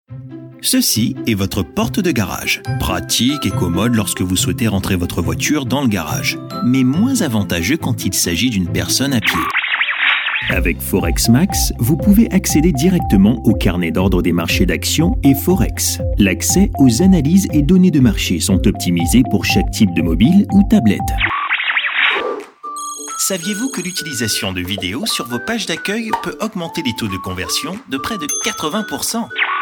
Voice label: Commercial explainer video; demo voix off